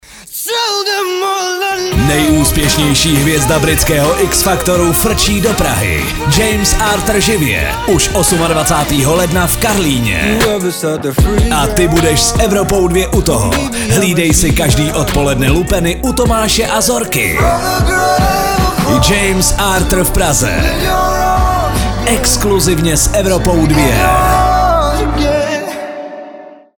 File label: liner; evropa2